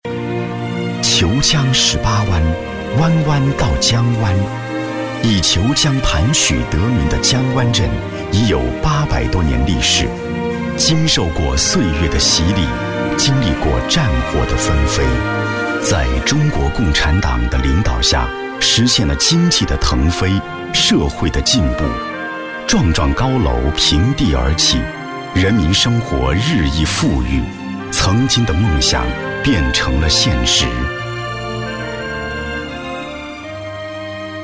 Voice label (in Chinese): 男 深情大气 城市发展专题